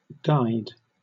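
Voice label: "guyed" British English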